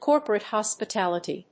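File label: "corporate hospitality", English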